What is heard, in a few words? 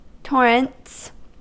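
"torrents", American English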